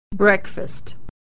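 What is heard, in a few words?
vowel e "breakfast", pronunciation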